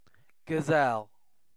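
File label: "gazelle", British English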